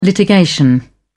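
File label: "litigation", British English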